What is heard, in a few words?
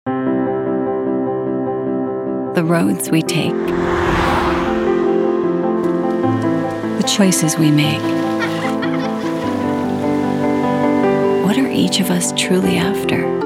mixed commercials english